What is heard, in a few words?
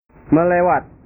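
"melewat", Indonesian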